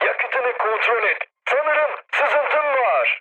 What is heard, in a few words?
tank damaged, mood med, speech tur; War Thunder